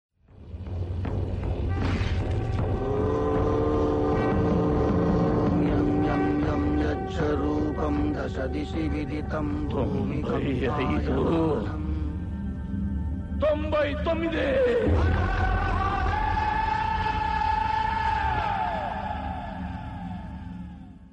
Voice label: dialog ringtone; magadheera